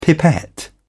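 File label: "pipette", British English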